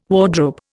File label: "wardrobe", Indian